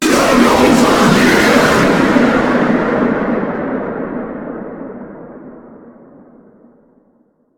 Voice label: Angry Ghost Voice Get Over Here

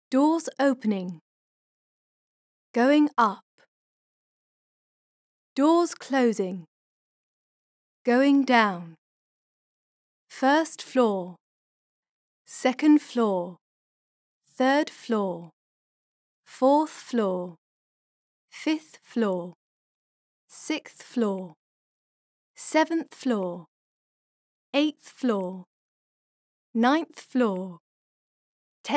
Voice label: Lift Voice Female

